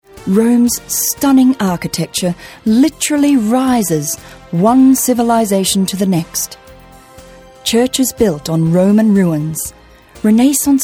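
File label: Australian narration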